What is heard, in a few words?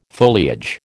"foliage", American